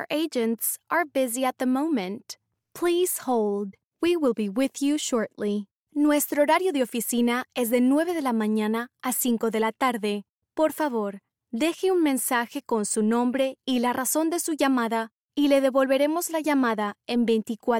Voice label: english american ivr

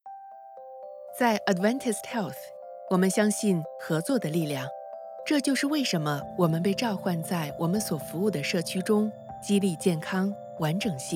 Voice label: chinese mandarin promos

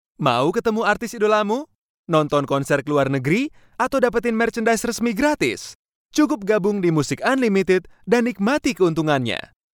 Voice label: indonesian commercial

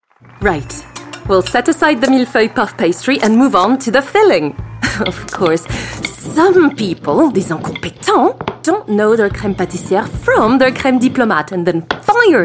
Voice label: english animation

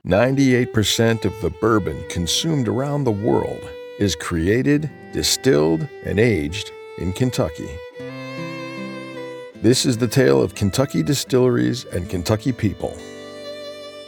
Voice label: english american documentaries

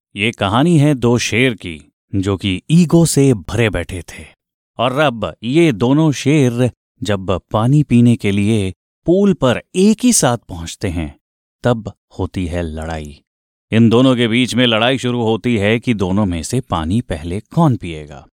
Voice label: Hindi commercial; Gujarati Englisch (Indisch); Kanada

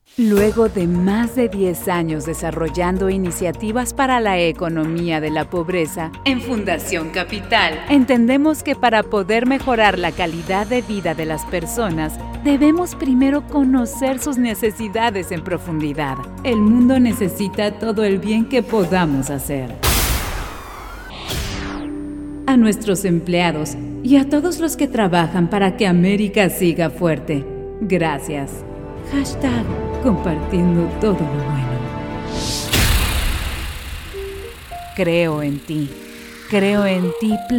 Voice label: spanish latin american

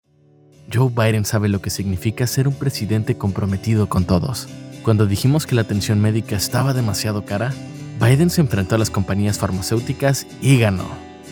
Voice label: spanish latin american political ads

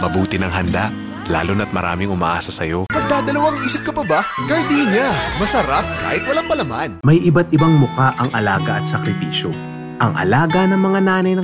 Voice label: tagalog commercial

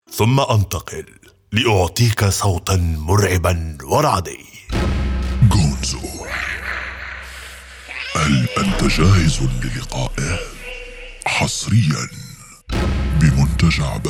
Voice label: arabic video games

